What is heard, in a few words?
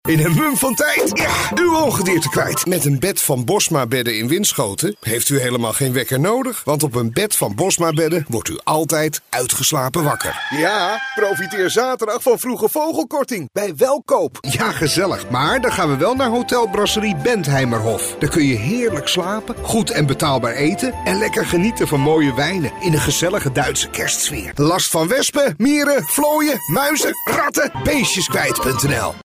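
dutch voice commercial, male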